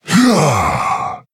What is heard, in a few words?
Male Quilboar Attack